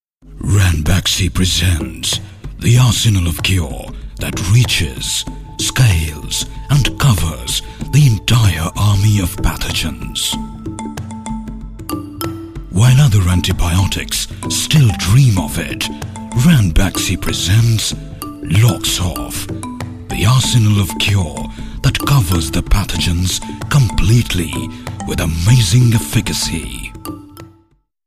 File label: english, male voice